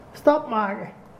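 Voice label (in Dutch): "Stapmake", dialect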